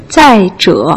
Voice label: "zai zhe", Putonghua